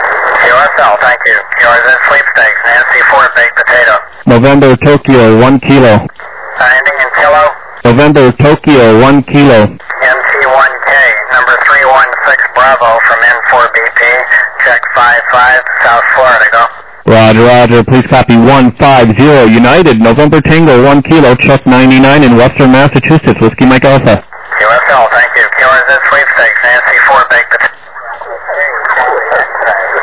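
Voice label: upper sideband 21MHz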